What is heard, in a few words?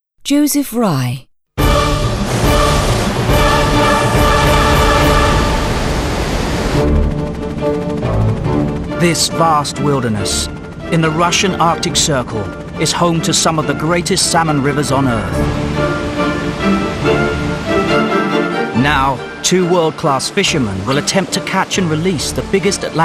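narration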